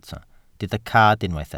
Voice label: "cad", welsh